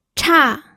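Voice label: "cha4", Mandarin Chinese; pinyin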